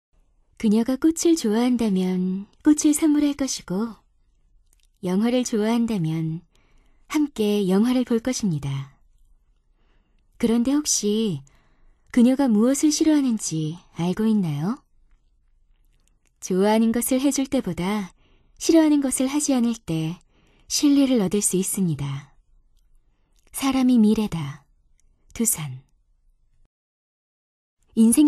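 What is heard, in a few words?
Korean, female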